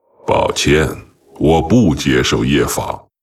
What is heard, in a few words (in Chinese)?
pissed, zh